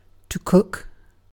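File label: "cook", British English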